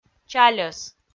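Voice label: "чальӧс", Komi, female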